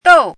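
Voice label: "dou4", Mandarin Chinese syllable, pinyin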